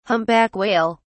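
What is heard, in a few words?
"humpback whale", English, British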